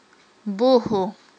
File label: "бугьу", Aghul